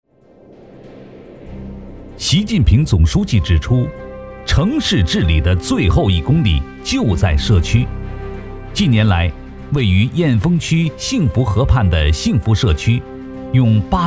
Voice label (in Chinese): 男 党建 社区党建